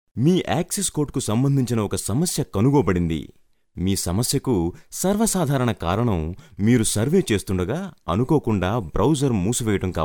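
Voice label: telugu male voice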